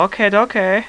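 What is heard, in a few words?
"okay", male, voice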